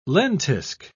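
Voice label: "lentisk", British English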